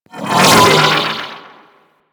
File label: Vocal Pain; Enemies